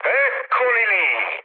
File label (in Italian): enemy detected, mood med, speech it; War Thunder